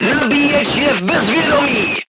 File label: voice message charger contused, crew tanks czech